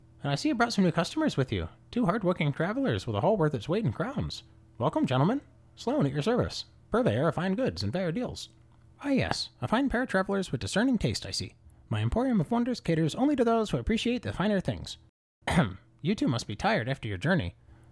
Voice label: Quick-witted and enterprising gnome with sharp business acumen and charming personality, Audiobook NPC; Sloan